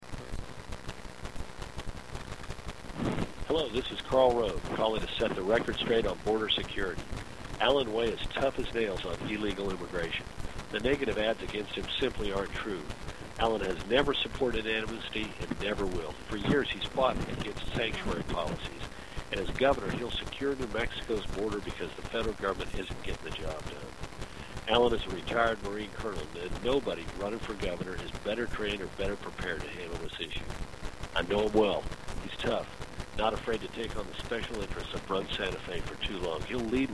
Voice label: Robocall; Rove